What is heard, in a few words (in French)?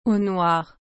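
"au noir", French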